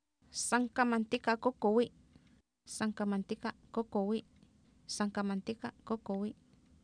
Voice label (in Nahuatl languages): "san kamantika kUkuwI", Oapan, female; Oapan minimal pairs 1998